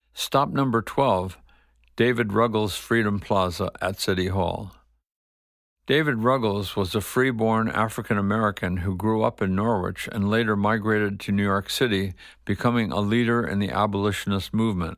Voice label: Narration; David Ruggles Plaza